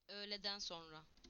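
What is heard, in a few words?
"afternoon", Turkish; PictureDictionary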